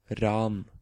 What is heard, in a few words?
"Ran", Norwegian